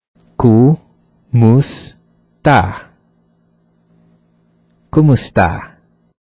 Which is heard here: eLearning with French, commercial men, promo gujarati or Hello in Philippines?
Hello in Philippines